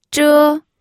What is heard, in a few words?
"zhe1", pinyin